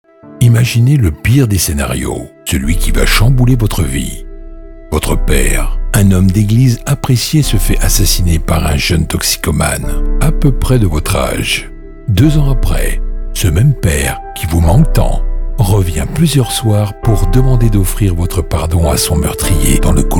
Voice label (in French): Pub voix